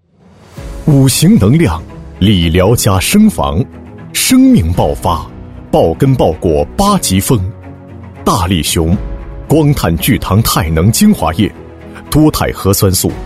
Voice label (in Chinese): 男 药品宣传 激情有力度; 大力雄 上市篇; 大气主流